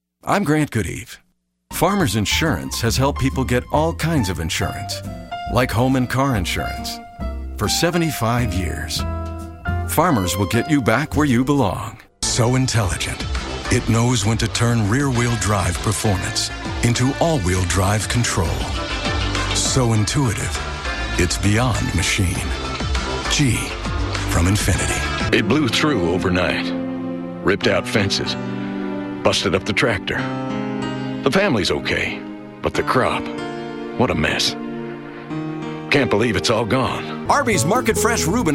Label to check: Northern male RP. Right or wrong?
Wrong